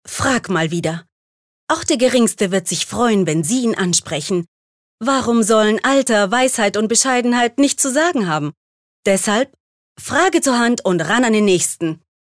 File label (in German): Werbung Elitaer